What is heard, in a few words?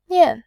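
"nian", Mandarin, tone 4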